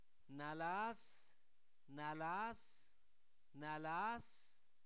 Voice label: delgado, Zapoteco, santo domingo albarradas; adjetivos; INALI

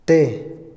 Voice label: crecer, Otomí, hombre; INALI

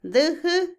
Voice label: dudar, Otomí, mujer; INALI

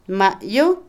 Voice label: Otomí, mujer; pastor; Animales; INALI

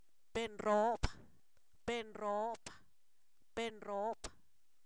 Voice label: Zapoteco, san miguel albarradas; supremo; sustantivos

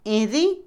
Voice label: "despedirse", Otomí, mujer; Sociales; Vocabulary; INALI

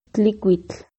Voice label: "fogón", Náhuatl

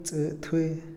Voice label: "juez", Otomí, hombre; INALI

